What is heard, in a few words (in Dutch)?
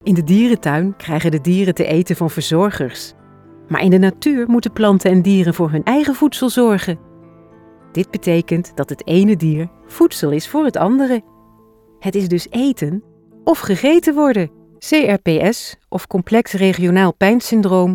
commentaar